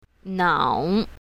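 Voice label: "nao3", Chinese pinyin, south, female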